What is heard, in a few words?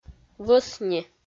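"вӧсни", Komi, female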